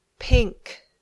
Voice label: "pink", English